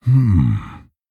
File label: Thinking